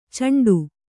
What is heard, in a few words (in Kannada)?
"ಚೆಂಡೆ", Kannada